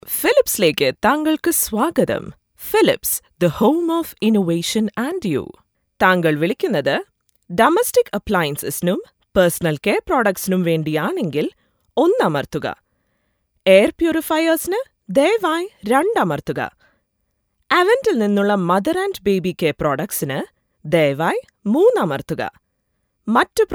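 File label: IVR, Malayalam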